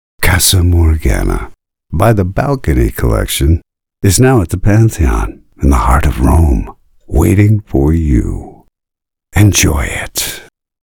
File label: US Male; Studio Nonagram